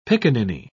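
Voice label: "piccaninny", British English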